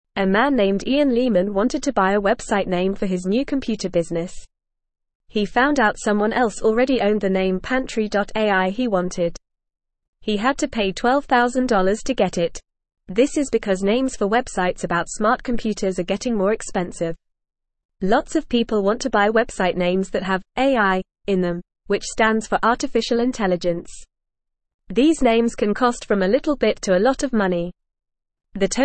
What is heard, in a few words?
English Lower Intermediate FAST Reading; Buying Website Names for Robot Helper Companies